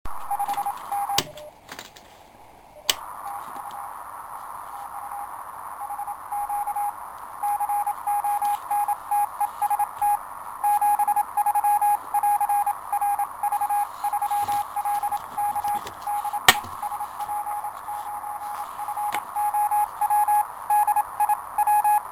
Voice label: QSO 28mHz